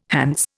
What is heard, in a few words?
"Hants", Indian